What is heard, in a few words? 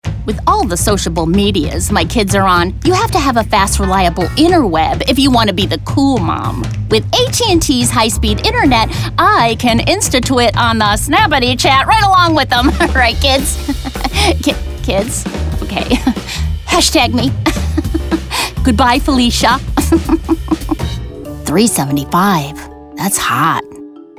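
Comedy Commercial Short, Australian English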